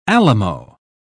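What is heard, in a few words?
"alamo", British English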